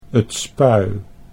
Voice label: 't Spui, Dutch

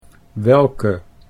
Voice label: "welke", Dutch

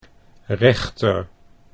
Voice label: "rechter", Dutch

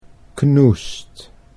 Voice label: "knoest", Dutch

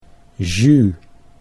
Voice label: "jus", Dutch

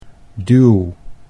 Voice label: "duw", Dutch

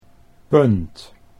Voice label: "punt", Dutch

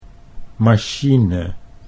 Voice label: "machine", Dutch

